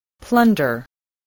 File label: "plunder", English